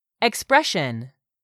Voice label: "expression", English; 3000 words

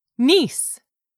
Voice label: "niece", English; 3000 words

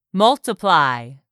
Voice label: "MULTIPLY", english; 3000 words

